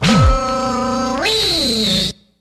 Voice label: "uhhhh whee", Voice